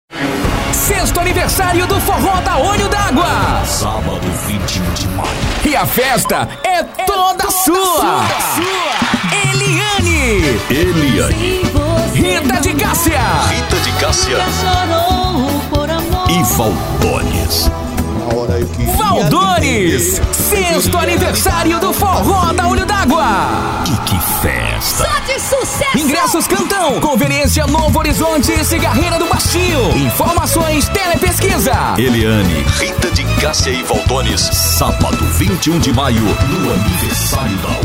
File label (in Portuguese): locutor; aniversario olho dgua